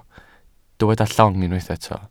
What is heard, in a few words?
"llong", welsh